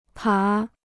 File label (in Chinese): "爬", Chinese